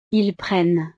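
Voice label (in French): "ils prennent", French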